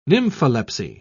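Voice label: "nympholepsy", English, British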